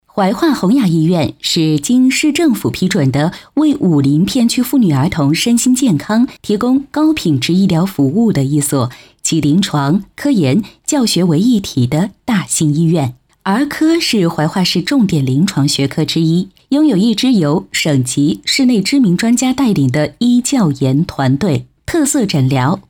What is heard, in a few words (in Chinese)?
女, 专题, 医院沉稳; 怀化红雅医院